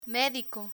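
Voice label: "medico", Spanish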